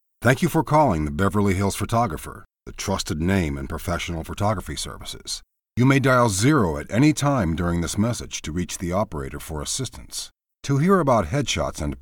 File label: IVR